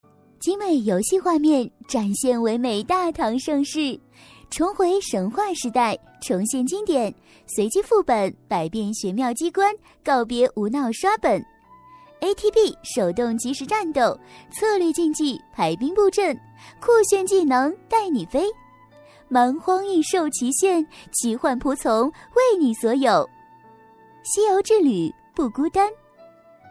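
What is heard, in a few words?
chinese mandarin female e-learning; voice demos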